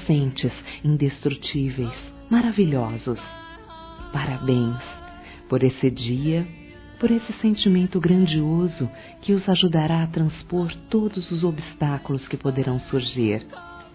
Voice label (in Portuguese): BODAS PAPEL F, Telemensagens; Bodas e Casamento